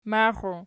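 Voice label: "maxiu" nominative, Archi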